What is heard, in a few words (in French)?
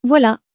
"voila", French; flashcards